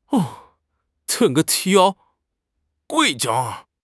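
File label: "tui3", Chinese; HSK3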